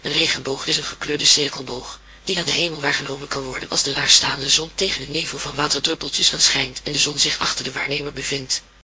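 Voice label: speaker, American English; piper-voices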